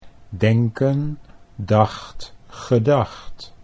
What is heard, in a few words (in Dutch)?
denken dacht gedacht, Dutch